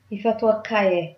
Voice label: i love you Tigrinya

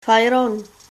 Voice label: fire, esperanto

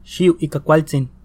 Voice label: goodbye nahuatl

